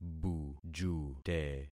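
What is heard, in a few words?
"bujute", concatenated syllables; Affixing experiment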